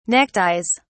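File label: "neckties", British English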